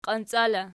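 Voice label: "qiancia" locative, Archi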